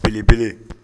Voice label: "belebele", Bambara